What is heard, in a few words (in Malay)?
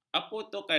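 "apotekari", Malay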